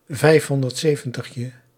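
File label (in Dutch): "vijfhonderdzeventigje", Dutch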